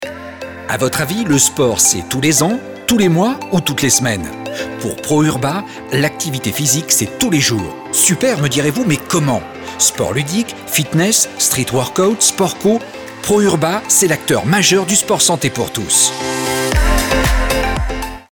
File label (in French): Voix Off; Pro Urba